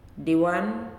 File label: "Go", Nalik